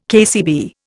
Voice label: "KCB", Indian